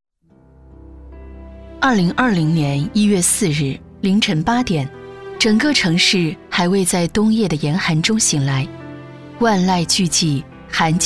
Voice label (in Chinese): 女 温情; 昌吉州文联2020年文化进万家活动纪实